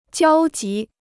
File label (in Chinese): "交集", Chinese